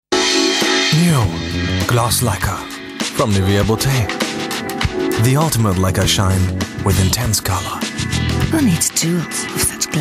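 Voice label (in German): American English, Werbung, Sanft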